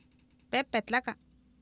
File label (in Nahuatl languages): "pEepetlAka", Oapan, female; Oapan minimal pairs 1998